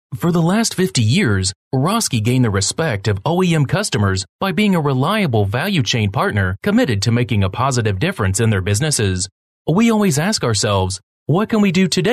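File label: English, US, male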